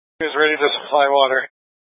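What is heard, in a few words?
Incident Tactical 2, Scanner audio recording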